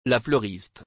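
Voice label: "La fleuriste", French; گل فروش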